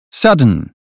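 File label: "sudden", British English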